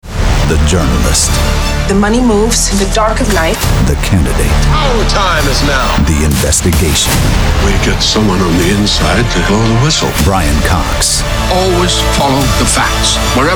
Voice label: VO trailer